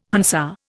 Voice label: "Hansa", Indian